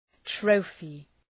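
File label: "trophy", English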